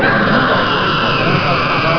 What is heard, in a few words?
distress, babble, sad